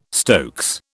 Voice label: "stokes", British